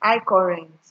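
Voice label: "highcurrent", Nigerian Pidgin, female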